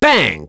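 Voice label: Vox Skill, voices heroes English; Mitra; kings raid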